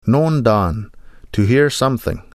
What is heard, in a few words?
"Noondaan", Ojibwe; To hear something; Nish Tales; Nanabush Looses The Meat; Conjugated Ojibwe Words